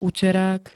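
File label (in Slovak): "uterák", Slovak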